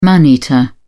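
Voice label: "man eater", English, uk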